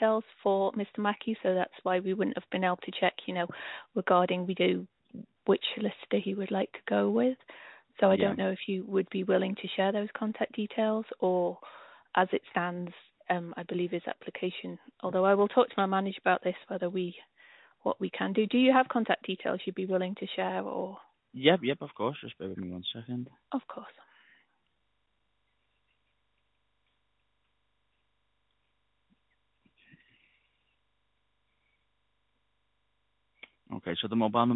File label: call recording